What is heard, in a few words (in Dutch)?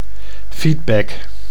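"feedback", Dutch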